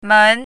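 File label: "men2", Chinese pinyin, second tone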